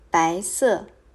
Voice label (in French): "Blanc" Mandarin